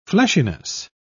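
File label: "fleshiness", British English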